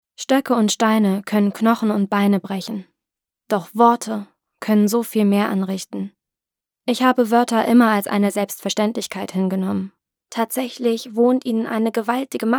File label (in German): hoerbuch deutsch